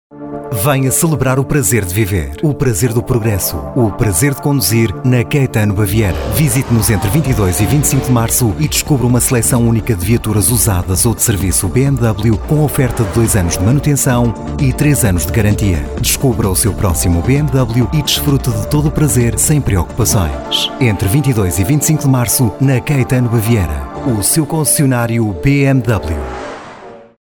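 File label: Portuguese, male, corporate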